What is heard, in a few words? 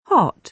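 "hot", British English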